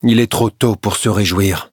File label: levelup, fr; cookierunwiki